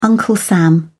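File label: "uncle sam", British English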